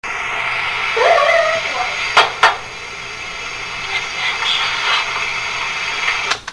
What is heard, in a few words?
A Little Girl Saying "That's Not What I Like!"